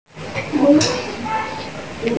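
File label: "chai2", Mandarin Chinese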